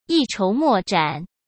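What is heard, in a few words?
"一筹莫展", Mandarin Chinese; hsk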